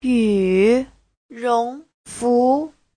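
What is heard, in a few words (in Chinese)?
"yu3 rong2 fu2" Chinese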